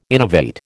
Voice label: "innovate", American